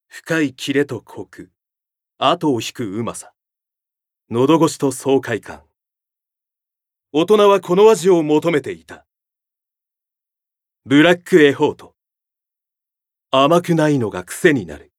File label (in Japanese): ナレーション